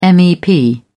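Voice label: "mep", British English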